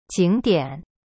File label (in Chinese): "景点", jing dian, Chinese; cyberchinese